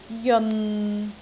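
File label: "jeon6", Cantonese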